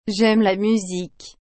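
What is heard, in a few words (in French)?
"Jaime la musique", French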